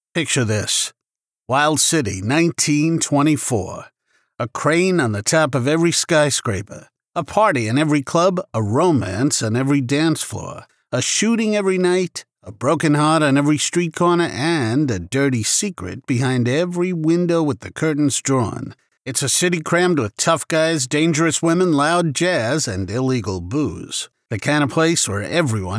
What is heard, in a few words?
Retail Sample, male voice